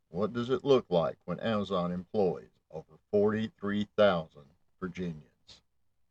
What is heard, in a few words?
Relatable Narrator; Down the Road